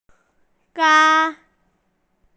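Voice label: "gai1", Mandarin Chinese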